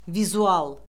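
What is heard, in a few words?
"vizual", Azerbaijani; Orfoqrafiya Lüğəti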